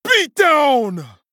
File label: Male Troll Attack